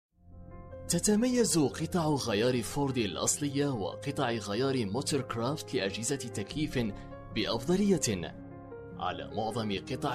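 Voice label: arabic male commercial; voice demos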